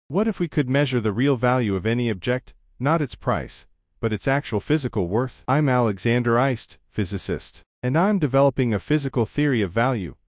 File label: male